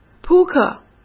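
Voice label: "扑克", Mandarin Chinese; hsk; chinese-flashcards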